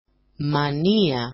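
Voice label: "mania", Greek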